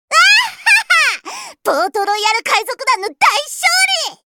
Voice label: Voice victory